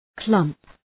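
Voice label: "clump", English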